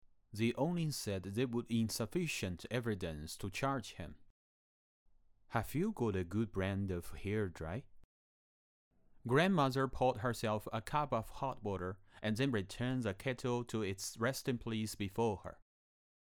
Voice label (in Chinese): 英语